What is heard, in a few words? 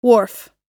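"wharf", US English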